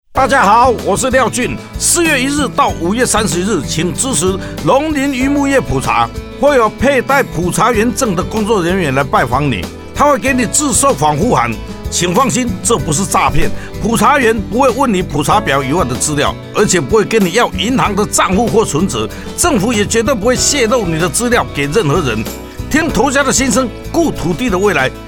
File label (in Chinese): 放心篇 國語版; 農林漁牧業普查